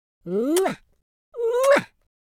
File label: happy, Footy Fan speech